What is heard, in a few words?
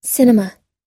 cinema, US; cinema /sínəmə; 映画、映画製作法、映画産業